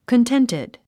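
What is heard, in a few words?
"contented", English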